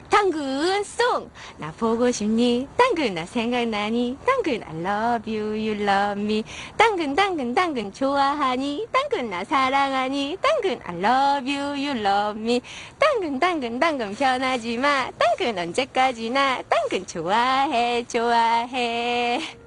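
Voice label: funny Asian advert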